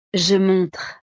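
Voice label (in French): "je montre", French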